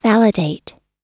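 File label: "validate", American English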